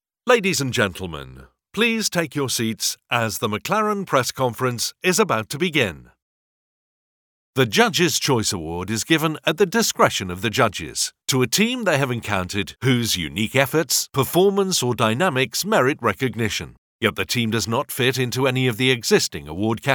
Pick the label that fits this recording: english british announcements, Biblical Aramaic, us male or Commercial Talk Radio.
english british announcements